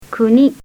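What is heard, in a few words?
"kuni", Japanese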